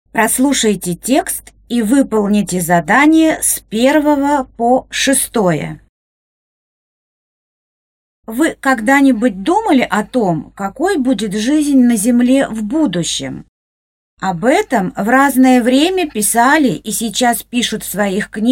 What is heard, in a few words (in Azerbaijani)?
model rus